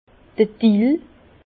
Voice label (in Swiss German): "dill", Bas Rhin; vin; aneth